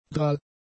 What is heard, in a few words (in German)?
"drall", German; adjectives